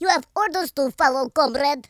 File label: coward, Russki